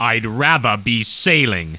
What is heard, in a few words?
annoyed; war2; humans; basic human voices; I'd rather be sailing; alliance